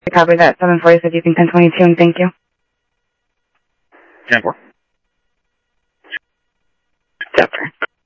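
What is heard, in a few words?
Sheriff East County, scanner; SoutheastCenMesa; MCSO - EAST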